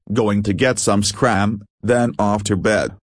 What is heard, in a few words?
frase scran, Reino Unido; girias